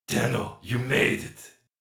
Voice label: Radio Play; Warframe; Alerts; Darvo Rescue; en